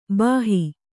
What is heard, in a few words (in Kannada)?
"ಭಾಹೊ", Kannada